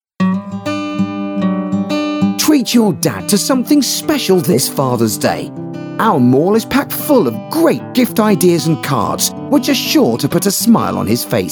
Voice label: fathers day male